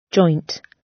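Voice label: "joint", British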